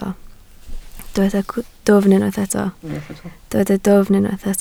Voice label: "dwfn", Welsh

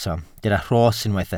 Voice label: "rhos", welsh

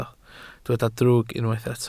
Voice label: "drwg", Welsh

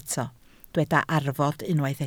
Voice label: "arfod", Welsh

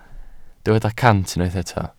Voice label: "cant", Welsh

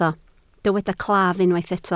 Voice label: "claf", Welsh

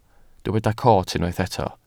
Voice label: "coot", Welsh

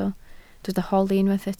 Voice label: "holi", Welsh

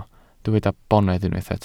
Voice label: "bonedd", welsh

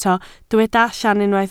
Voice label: "allan", Welsh

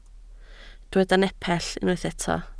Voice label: "nepell", Welsh

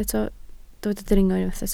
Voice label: "dringo", Welsh

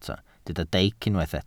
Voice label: "deic", welsh

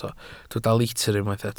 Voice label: "litr", Welsh

